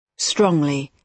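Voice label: "strongly", British English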